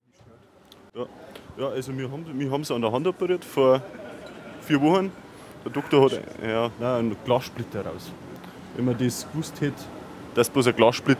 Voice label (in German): stimme m